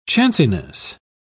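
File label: "chanciness", British English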